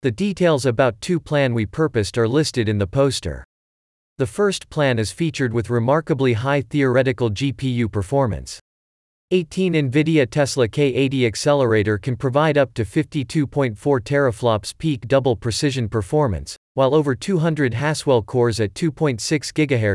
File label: Voiceover; ClusterComp 2020